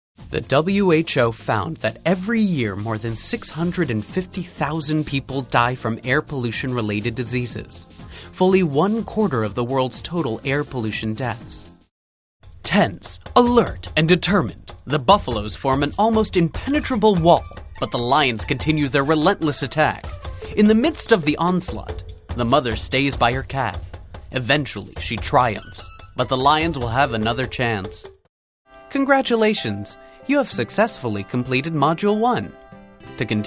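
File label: American, narration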